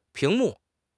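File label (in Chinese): "屏幕", Chinese; HSK; HSK6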